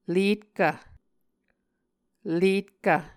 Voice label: "letkv", Muscogee